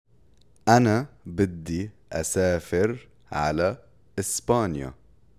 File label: Spoken Arabic phrases